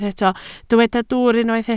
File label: "dwwr", Welsh